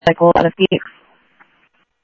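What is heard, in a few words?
Paradise Valley PD Dispatch, scanner audio; H-Scottsdale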